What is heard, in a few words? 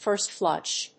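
"first flush", English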